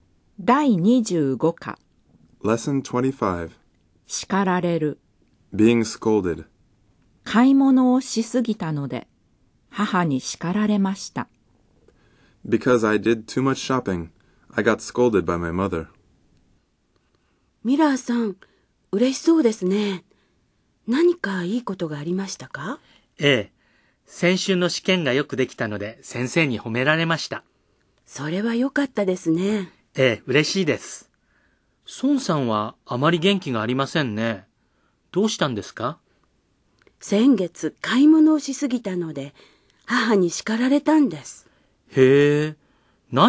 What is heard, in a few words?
Dialog